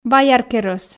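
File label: "баяркерӧс", Komi, female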